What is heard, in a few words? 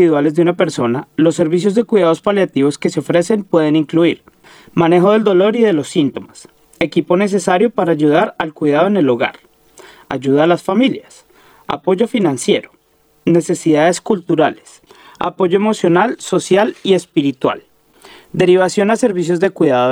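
Palliative Care Spanish; Which kind of services are available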